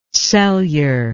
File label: "celure", English